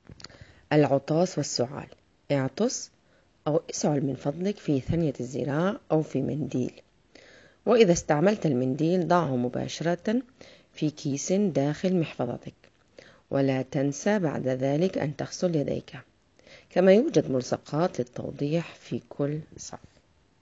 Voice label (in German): Arabisch